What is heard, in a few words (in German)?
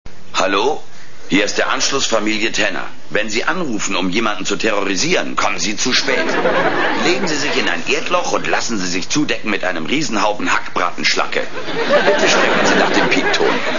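Ansagen für Anrufbeantworter; alf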